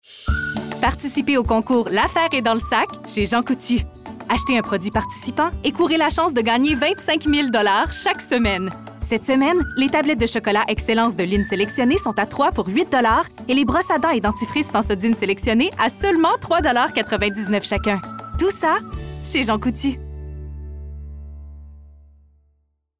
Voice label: Pubs Amicale Souriante, Enthousiaste - Souriante - Québécois soutenu; Jean-Coutu

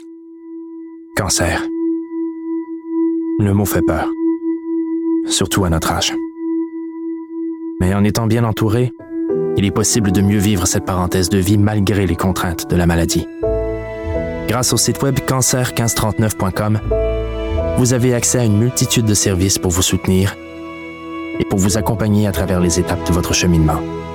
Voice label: Pub societale fictif Empathique Serieux Qc Naturel, voix; Cancer 15-39

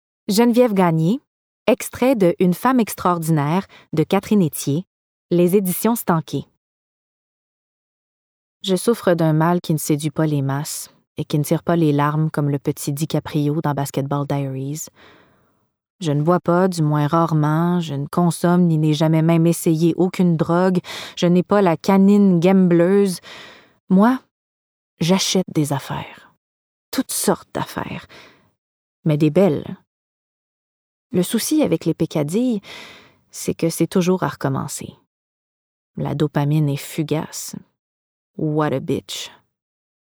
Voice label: Livre audio Voix Fr Q, Personnage typé (acheteuse compulsive); Sensible - Québécois naturel; Sincère; Une femme extraordinaire (Catherine Éthier)

